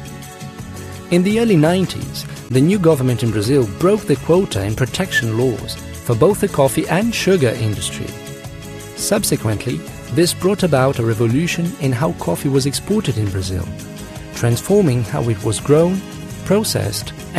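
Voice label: Brazilian Portuguese English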